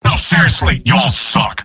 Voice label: scout jeers; mvm